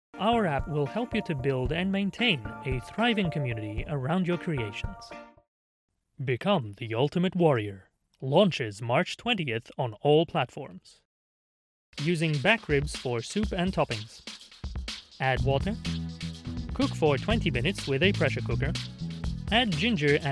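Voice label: Bulgarian, English accent; GoLocalise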